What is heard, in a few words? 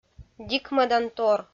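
"дикмӧдантор", Komi, female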